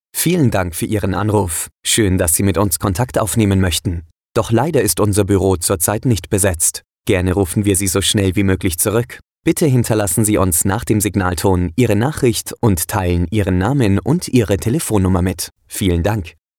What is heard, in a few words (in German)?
ansage (m)